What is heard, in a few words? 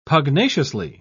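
"pugnaciously", British English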